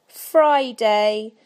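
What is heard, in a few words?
"friday", British English; PictureDictionary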